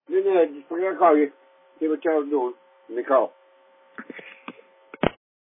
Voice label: Voicemail